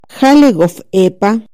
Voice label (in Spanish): Qué haces, Chontal Oaxaca